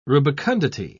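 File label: "rubicundity", English, British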